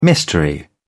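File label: "mystery", British English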